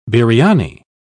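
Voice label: "biryani", British English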